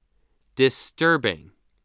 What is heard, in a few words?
"disturbing", spelling